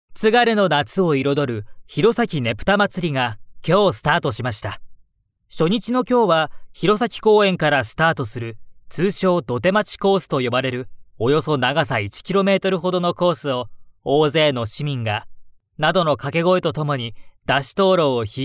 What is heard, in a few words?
male japanese voice over talent narrative